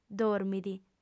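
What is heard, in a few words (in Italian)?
"dormit", lingua sarda, female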